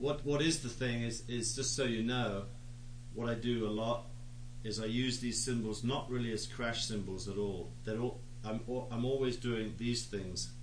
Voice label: cymbal talk, male; MALE MISC